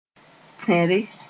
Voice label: "tẽre", moore